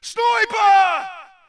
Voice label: brit sniper